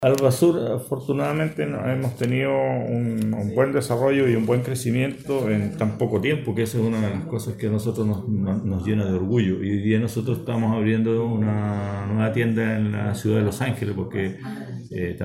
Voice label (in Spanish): Cuña